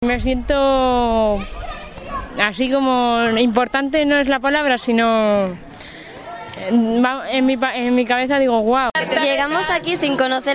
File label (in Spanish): monitores y niños opinan sobre el curso de cocina